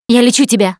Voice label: Heal Other Combat, russian; Producer